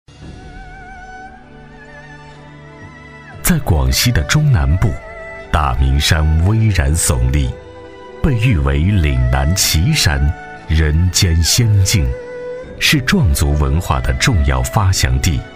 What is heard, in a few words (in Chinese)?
男 纪录片 大气 恢弘 讲述; 陵水纪录片